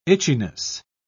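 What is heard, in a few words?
"itchiness", British English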